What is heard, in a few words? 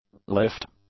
"lift", English; nouns